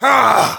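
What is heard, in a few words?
negative vocalization, German; Demoman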